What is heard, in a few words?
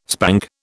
"spank", British